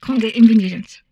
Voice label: humanoid female; Stellaris; humanoids